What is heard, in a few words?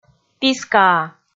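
"пискаа", Komi, female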